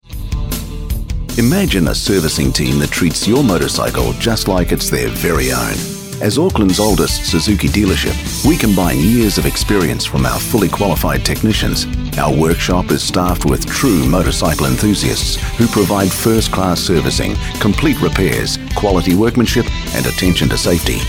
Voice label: motoring mechanical messages on hold